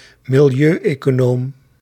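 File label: "milieueconoom", Dutch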